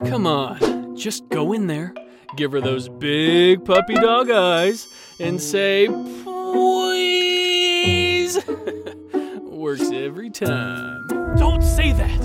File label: Character, VoiceOver; Character Demo